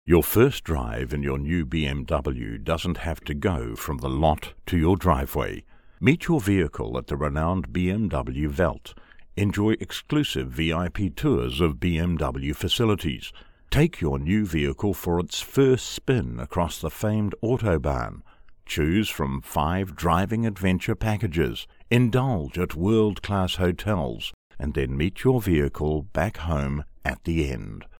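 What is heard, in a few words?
English New Zealand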